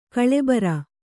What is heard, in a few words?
"ಗೋಲಿಬಾರು", Kannada